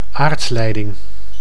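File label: "aardleiding", Dutch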